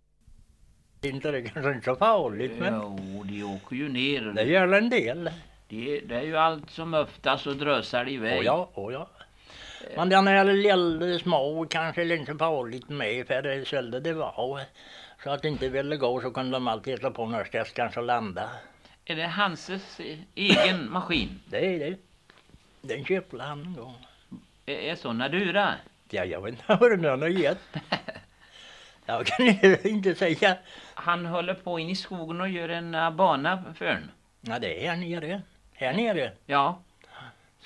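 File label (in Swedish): Tvärred Västergötland, Svenska sagor, dialekter; Folkminnen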